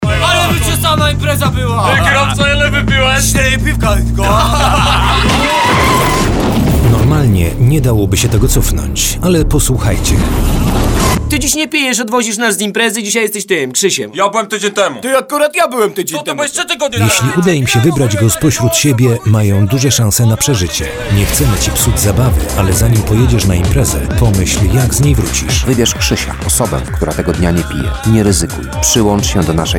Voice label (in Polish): spot radiowy